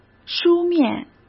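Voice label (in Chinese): "书面", Mandarin Chinese; chinese flashcards; hsk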